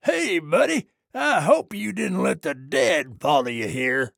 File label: trader male neutral greeting